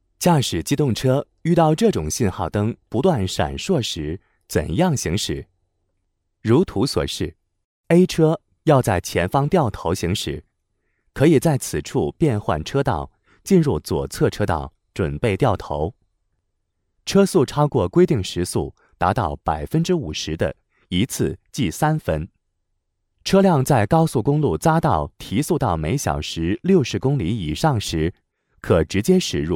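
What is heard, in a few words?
Mandarin Chinese, male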